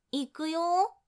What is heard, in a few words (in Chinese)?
开始咯ー, 女生为你进行健身计数的语音, 少女